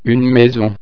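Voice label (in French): "maison", French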